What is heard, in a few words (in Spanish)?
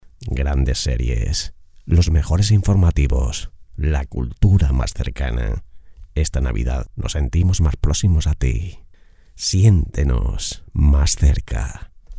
locutores navidad